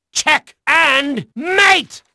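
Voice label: English male; Pirates Of The Caribbean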